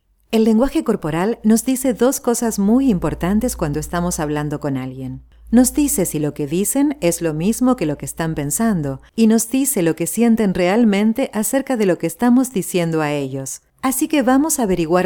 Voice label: E-Learning Spanish Voiceover